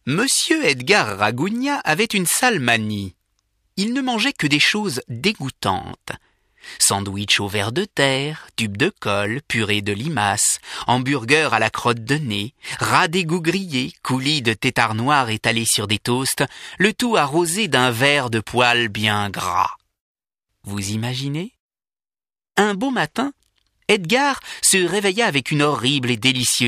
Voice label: NARR CONTE; Le Pudding dingue

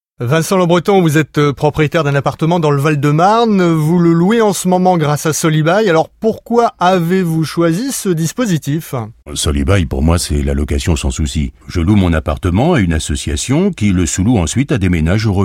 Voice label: Pub radio dialoguée; SOLIBAIL

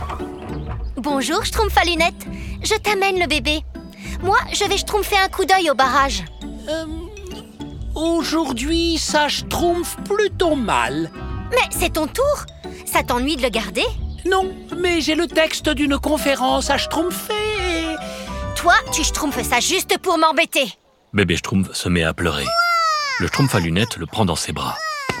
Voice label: Voix Schtroumpfette; Les Schtroumpfs

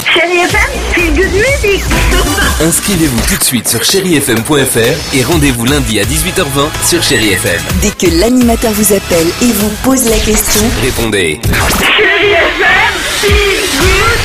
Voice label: promo; CherieFm

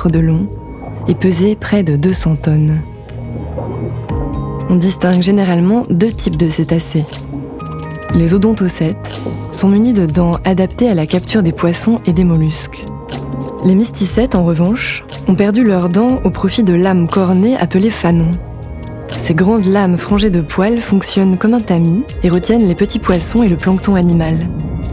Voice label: Docu Baleines

